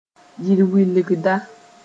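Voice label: "yɩl wilgda", Mossi; tell-tale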